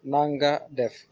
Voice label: hello, wolof; Na nga def